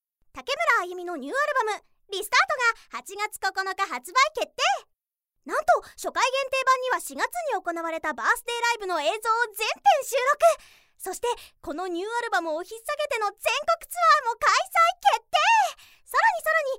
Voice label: narration